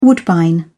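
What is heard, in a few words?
"woodbine", British English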